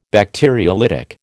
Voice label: "Bacteriolytic", American

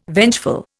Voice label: "vengeful", Indian

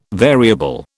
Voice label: "variable", British

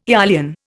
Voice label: "eolian", Indian

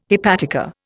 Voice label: "hepatica", Indian

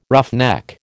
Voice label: "roughneck", American

